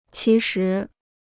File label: "其实", Chinese